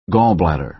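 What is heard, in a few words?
"gall bladder", American English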